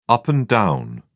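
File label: "up and down", English, British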